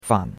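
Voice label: "fan", Chinese, third tone; Cours-de-Chinois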